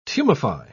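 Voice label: "tumefy", British English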